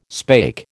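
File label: "spake", American English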